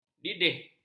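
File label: "didih", Malay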